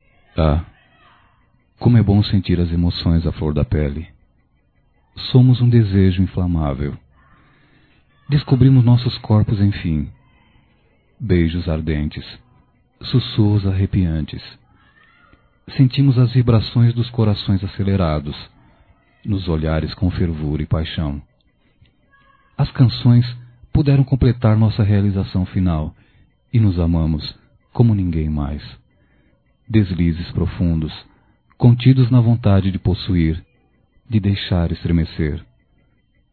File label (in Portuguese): Aniversário Romantico Masculino; Telemensagens; Só Voz; Telemensagens 2017